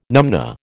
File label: "numnah", American